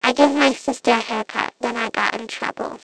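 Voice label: child simulation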